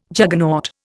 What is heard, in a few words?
"Juggernaut", Indian